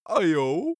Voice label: cartoon male agree casual

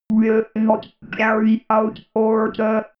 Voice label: robot voice will not carry out order

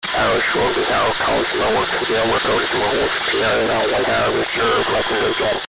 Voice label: cockpit radio communication; Flugzeug - Verkehrsflugzeug

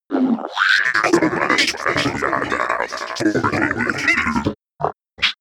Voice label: stuttering voices

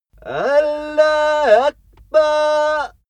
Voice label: male pray arabian

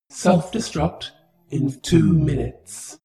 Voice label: space shuttle female status report

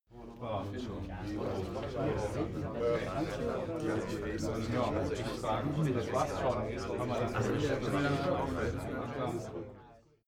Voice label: male crowd chatting calmly short

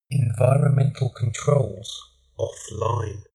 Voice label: male system status

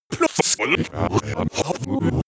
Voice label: glitch talk fx

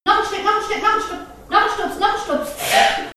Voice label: birth nurse encouraging

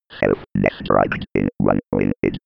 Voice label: old computer voice

